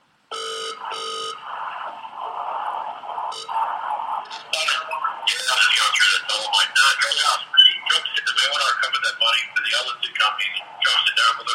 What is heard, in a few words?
telephone intercept